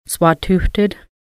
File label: earth land swatixʷtəd, Lushootseed